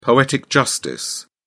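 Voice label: "poetic justice", British English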